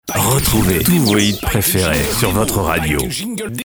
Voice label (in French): Retrouvez tous vos hits préférés votre radio tag